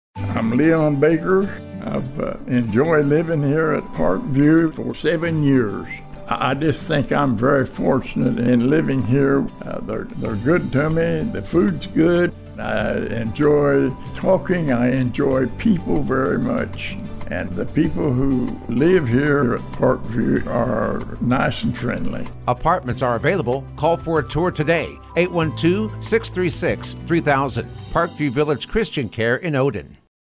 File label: testimonial